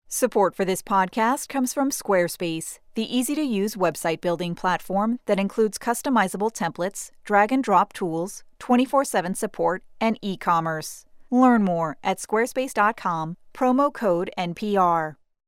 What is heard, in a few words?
PodcastIntro; SquareSpace